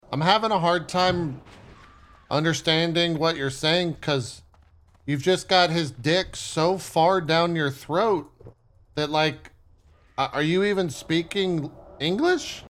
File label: chatter